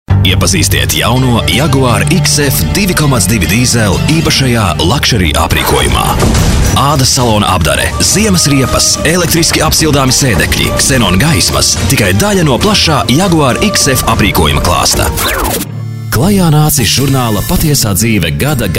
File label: latvian commercial